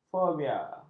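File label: "fobia", Malay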